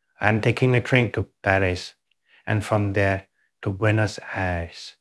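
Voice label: Voice Acting, Spanish, male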